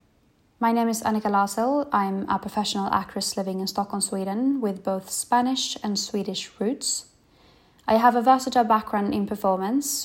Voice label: Presentation British English